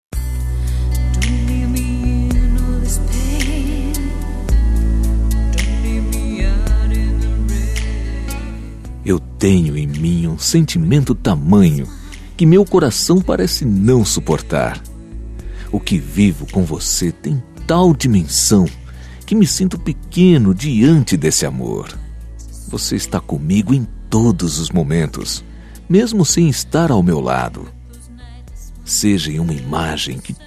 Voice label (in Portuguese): Namorados Voce Me Encanta M